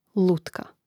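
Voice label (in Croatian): "lutka", Croatian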